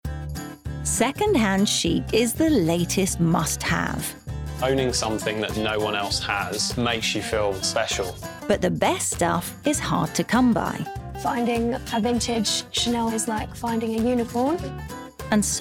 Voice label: Documentary Montage

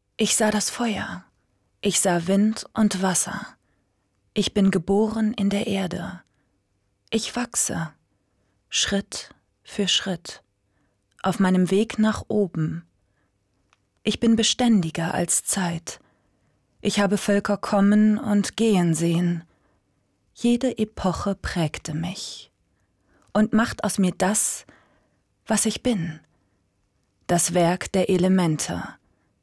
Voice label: tough intensiv Museum Audio; LOFT VOICES

